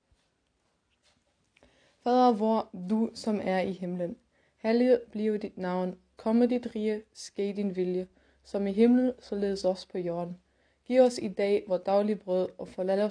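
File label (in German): Vaterunser dänisch; Vater unser auf dänisch; Familienimpuls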